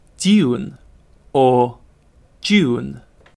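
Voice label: "dune", British English; pronunciation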